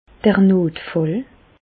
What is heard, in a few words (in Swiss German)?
"notfall", Bas Rhin; medecine; urgence